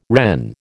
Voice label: "Wren", American